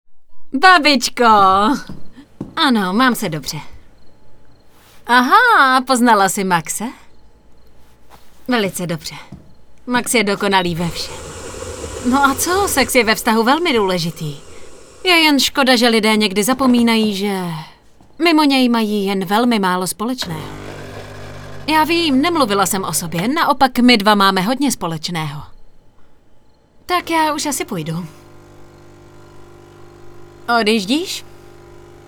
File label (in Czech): ukazka dabingu